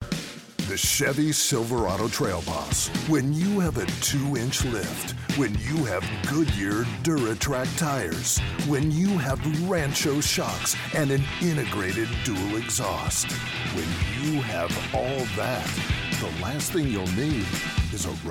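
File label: English US, Commercial; GoLocalise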